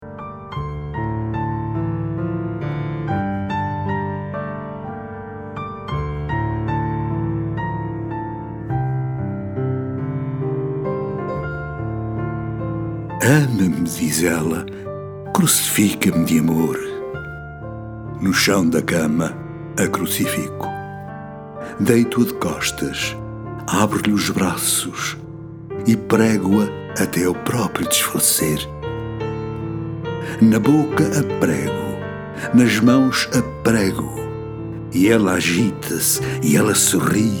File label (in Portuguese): poema lido; casimiro brito